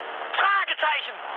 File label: enemy detected, mood high, speech ge; War Thunder